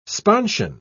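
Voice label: "sponsion", British English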